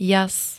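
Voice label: "jas", Slovak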